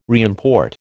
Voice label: "reimport", American